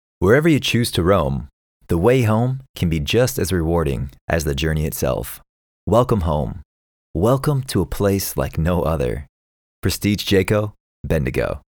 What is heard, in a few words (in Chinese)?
外籍英男 房车广告